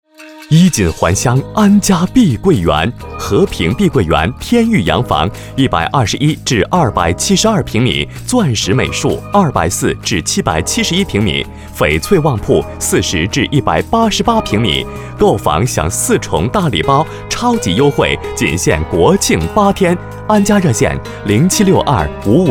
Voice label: 男 中文 广告类 房产; 碧桂园

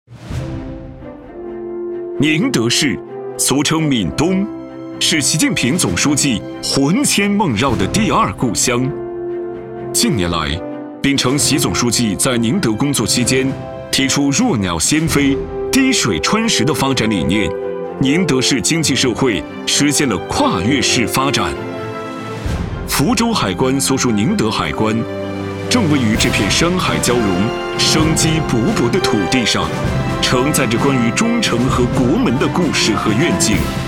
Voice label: 男 党政汇报; 宁德海关

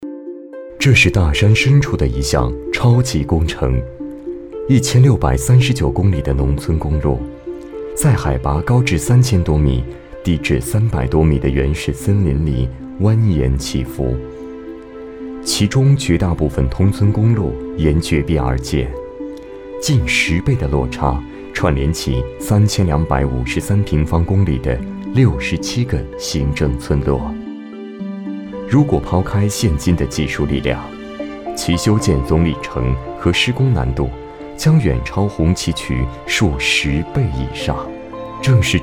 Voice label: 男 纪录片; 任家古宅

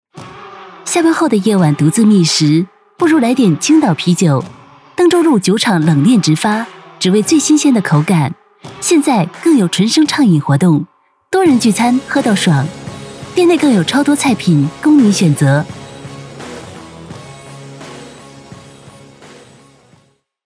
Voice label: 女 促销; 青岛啤酒

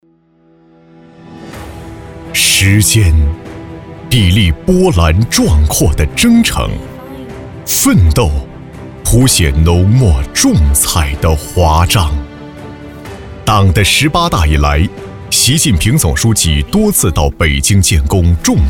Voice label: 男 专题 大气浑厚; 黄南州

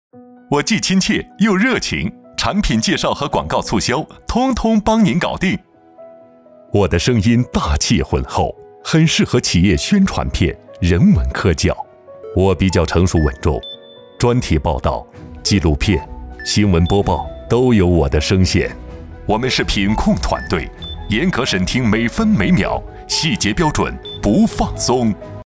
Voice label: 男 角色 宣传广告

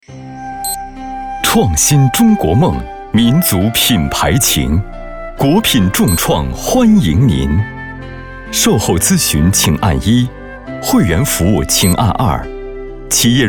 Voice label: 男 彩铃

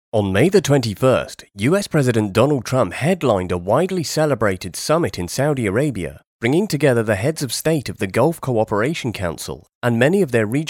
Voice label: 新闻播报

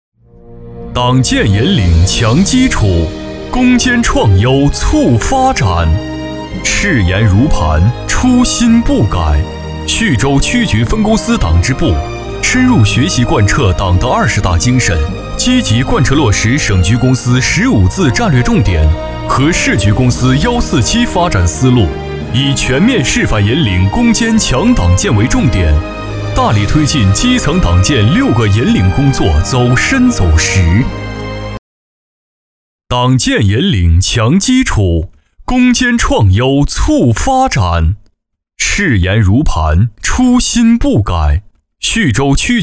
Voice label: 男, 党建, 大气; 叙州烟草

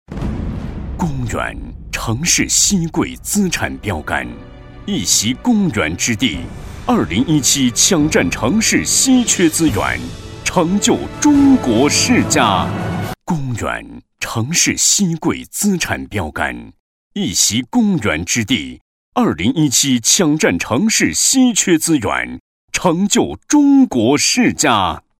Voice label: 男-专题; 中福公园

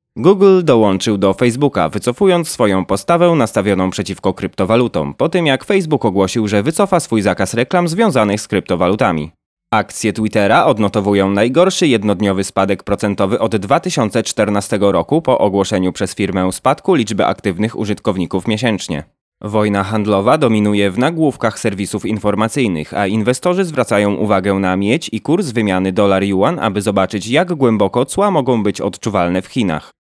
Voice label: 波兰语男-每日新闻

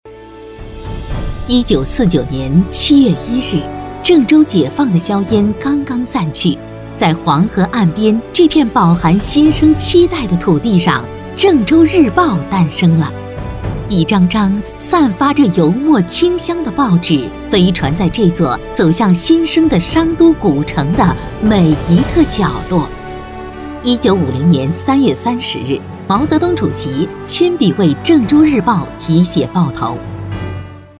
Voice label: 女 宣传片 报业集团

